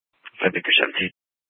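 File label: police dispatch, scanner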